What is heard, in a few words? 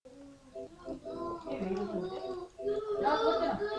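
"zip", spoken word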